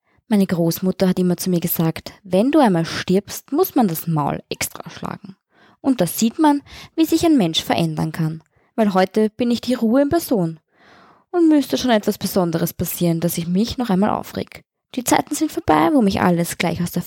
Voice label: Hörbücher

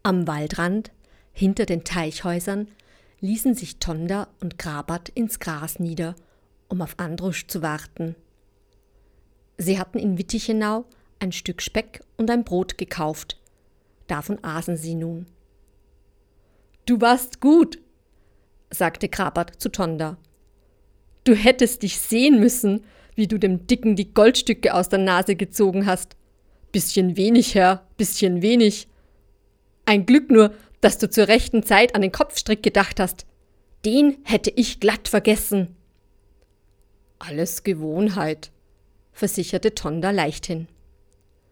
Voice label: Hörbuch; Krabat

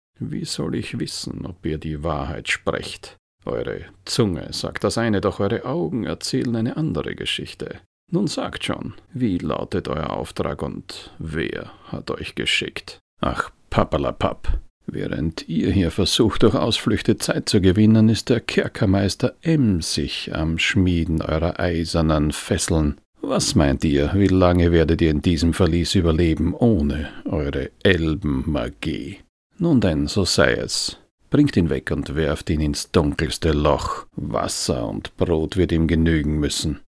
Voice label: Game Synchro VERHÖR

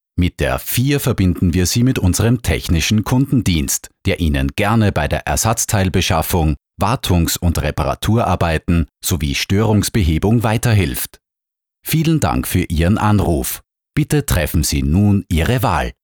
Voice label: anrufbeantworter

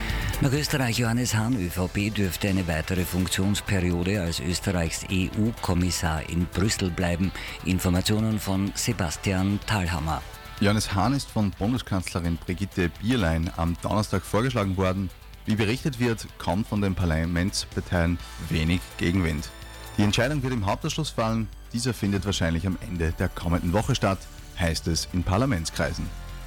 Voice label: Nachrichten

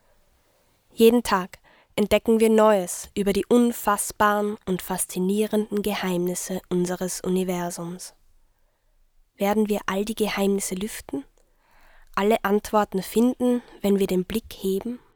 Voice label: Weltraumdoku

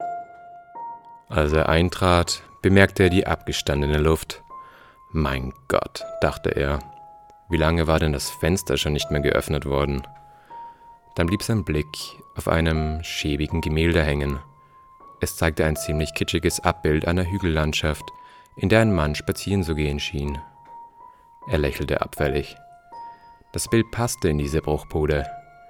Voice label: Hoerbuch

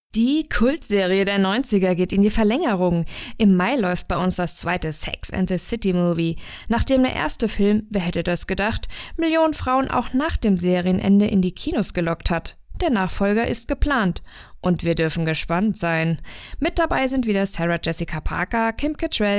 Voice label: Radio Plaudern

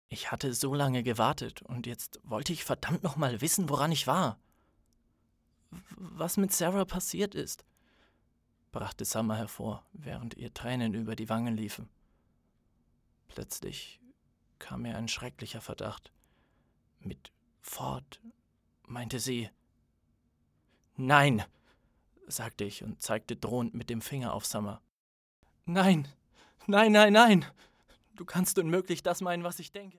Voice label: Hörbuch; PS Ich vermisse dich

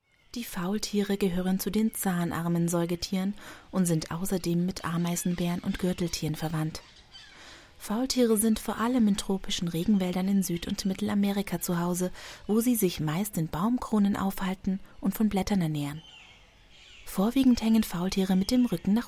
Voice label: Off Kommentar; Faultiere

